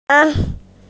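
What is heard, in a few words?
"umbrella", Mandarin Chinese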